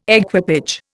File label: "equipage", Indian